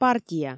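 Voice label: "партия", Komi, female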